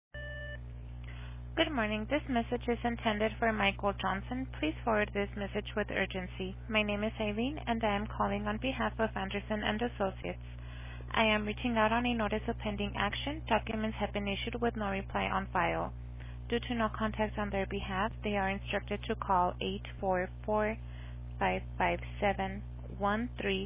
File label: Voicemail